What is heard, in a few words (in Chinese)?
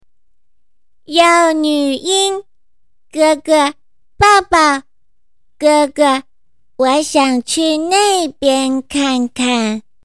幼女音